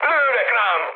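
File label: Hungarian aircraft damaged mood high, speech; War Thunder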